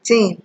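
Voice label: "ting", Nigerian Pidgin, female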